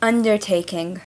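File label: "undertaking", English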